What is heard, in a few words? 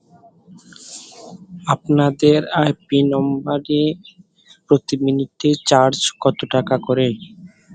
voice message